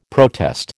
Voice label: "protest", American

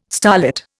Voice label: "starlit", Indian

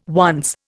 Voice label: "once", Indian

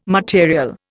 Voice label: "material", Indian

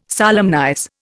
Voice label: "solemnize", Indian

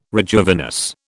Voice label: "rejuvenesce", British

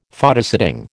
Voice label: "Photosetting", American

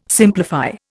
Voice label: "simplify", Indian

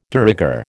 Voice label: "de rigueur", American

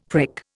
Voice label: "prick", Indian; Dictionary

